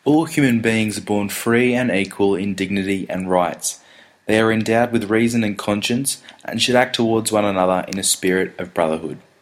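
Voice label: Australian English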